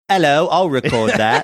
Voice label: 'ello I'll record that cockney